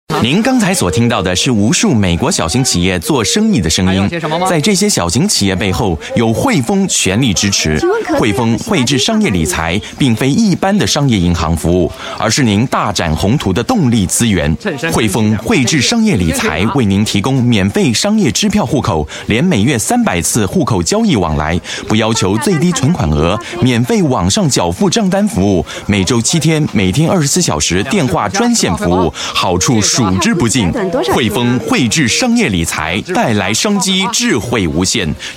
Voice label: chinese voice over male narrator